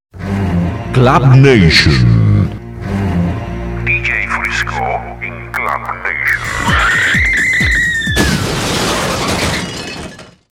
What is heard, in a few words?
jingle; club nation; terror